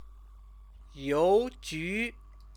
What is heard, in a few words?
"youju", Chinese